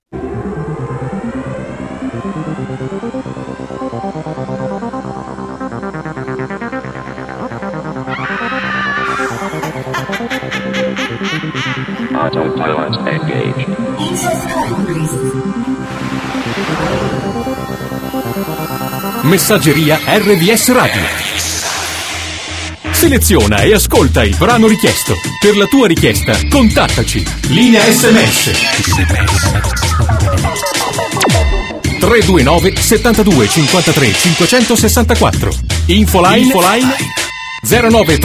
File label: Liner